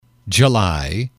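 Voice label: "July", English, male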